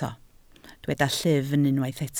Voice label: "llyfn", Welsh

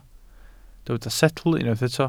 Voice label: "setl", Welsh

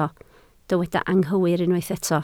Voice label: "anghywir", Welsh

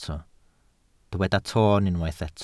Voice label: "toon", Welsh

